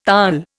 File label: "Taal", Indian